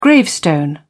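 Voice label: "gravestone", British English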